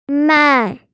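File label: "mai4", Mandarin Chinese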